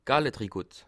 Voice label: "gale", Alsace

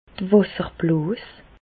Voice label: "wasserblos", Bas Rhin; medecine; vessie

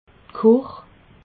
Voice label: "kuch", alsace

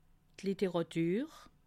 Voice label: "literatur", alsace; la litterature

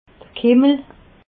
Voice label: "kimmel", alsace

